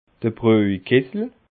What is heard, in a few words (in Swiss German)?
"brojkessel", alsace